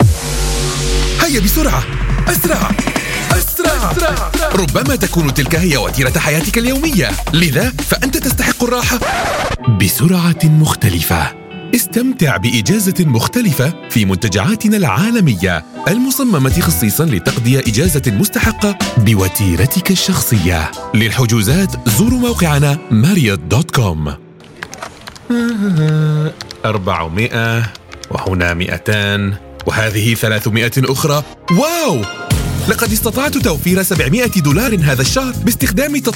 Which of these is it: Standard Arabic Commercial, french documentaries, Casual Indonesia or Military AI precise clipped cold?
Standard Arabic Commercial